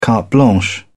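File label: "carte blanche", English, British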